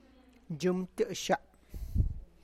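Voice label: my ribs, Tlacochahuaya Zapotec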